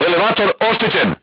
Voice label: voice message vertical aiming drive damaged, serbian crew tanks